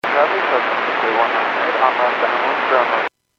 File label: Airports 122.7, Radio; KSTE